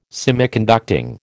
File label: "Semiconducting", American